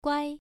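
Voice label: "guai", Mandarin Chinese, first tone, pronunciation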